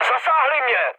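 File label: aircraft damaged, Czech, mood med; War Thunder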